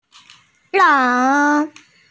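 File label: "leng3", Mandarin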